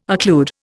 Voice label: "occlude", Indian

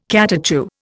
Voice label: "catechu", Indian

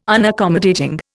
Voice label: "Unaccommodating", Indian

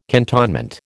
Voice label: "cantonment", American

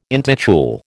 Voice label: "intitule", American

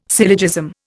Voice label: "syllogism", Indian

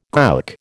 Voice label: "gowk", American

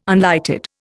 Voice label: "unlighted", Indian

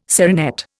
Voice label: "serinette", Indian

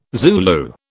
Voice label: "Zulu", British

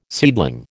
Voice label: "seedling", American

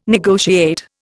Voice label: "negotiate", Indian

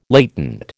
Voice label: "latent", American

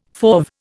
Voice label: "fauve", Indian

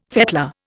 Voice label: "fettler", Indian

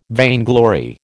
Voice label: "vainglory", American

